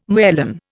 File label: "merlin", Indian